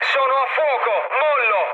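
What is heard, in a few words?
Italian on fire mood med, speech; War Thunder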